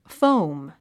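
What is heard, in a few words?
"foam", English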